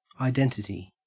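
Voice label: "identity", Australian English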